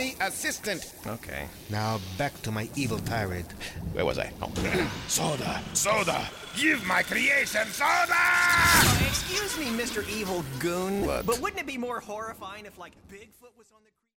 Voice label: MAD SCIENTIST